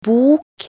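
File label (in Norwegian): "bok", Norwegian; talkbook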